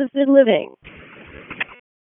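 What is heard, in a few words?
Fire Department HQ Dispatch; RFD; p25; wake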